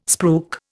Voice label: "spruik", Indian

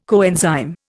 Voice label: "coenzyme", Indian

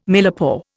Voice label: "millepore", Indian

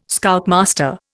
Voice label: "Scoutmaster", Indian

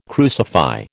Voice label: "crucify", American

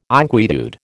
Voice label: "inquietude", American

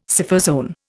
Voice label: "Scyphozoan", Indian

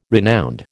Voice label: "renowned", American

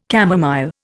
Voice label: "camomile", Indian

